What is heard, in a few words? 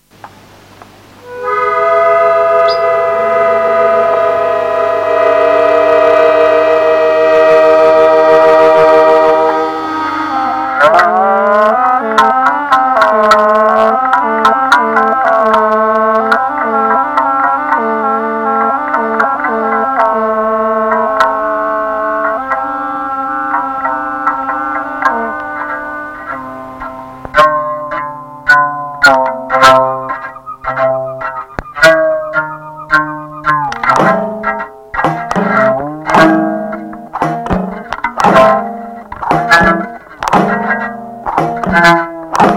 voicemail